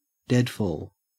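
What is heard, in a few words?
"deadfall", Australian English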